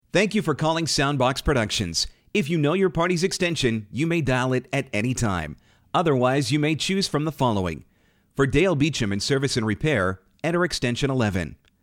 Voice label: Phone System; Soundbox Productions